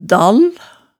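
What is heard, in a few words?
"dall", Hemsedal dialect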